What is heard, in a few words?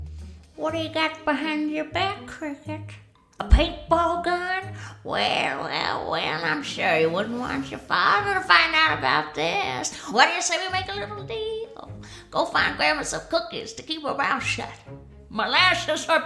Animation